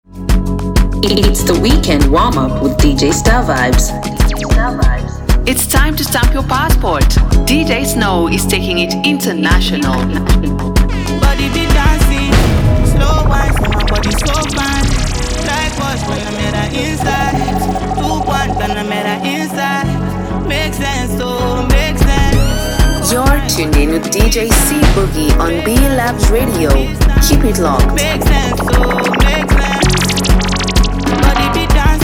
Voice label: Afrobeats DJ Drops, african female